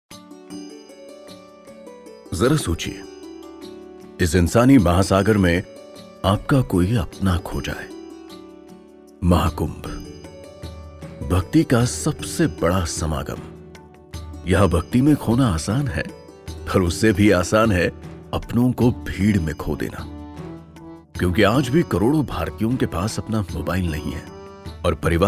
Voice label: Hindi walkthrough